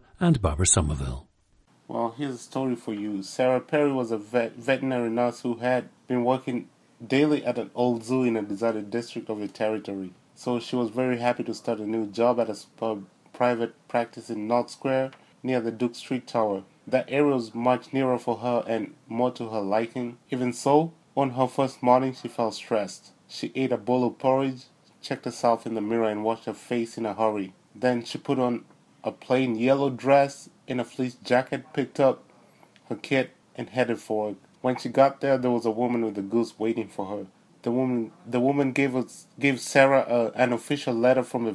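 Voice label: kenya, International Dialects of English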